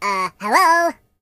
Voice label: "Hello", Surfer voice; hedgewars